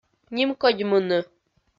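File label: "нимкодьмыны", Komi, female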